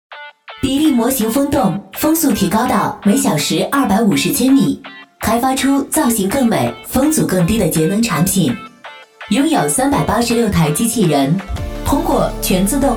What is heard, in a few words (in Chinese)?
女 女机器人